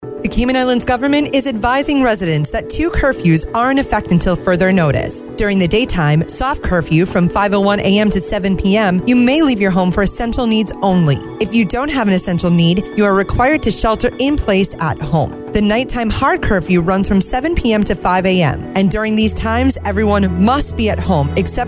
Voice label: english us and canada female generic